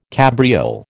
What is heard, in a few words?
"cabriole", American